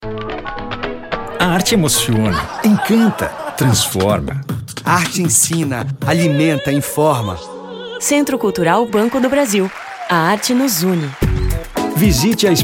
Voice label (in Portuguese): Locução para filme; Club Social